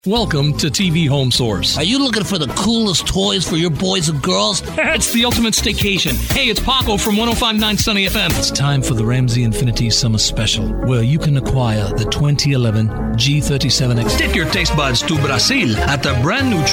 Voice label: English Commercial